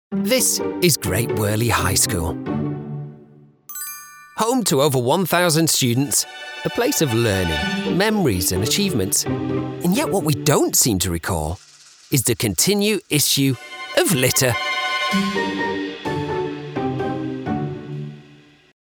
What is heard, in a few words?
documentary